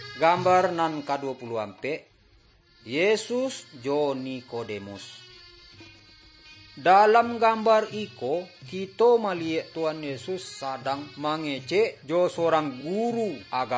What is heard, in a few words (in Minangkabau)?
good news minangkabau; bible